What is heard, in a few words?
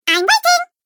I'm Waiting Cartoon Game Voice; Cartoon Voice Sound Effects